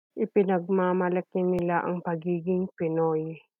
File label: tagalog